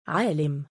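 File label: "3aalim" male, Arabic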